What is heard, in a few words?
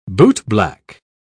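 "bootblack", British English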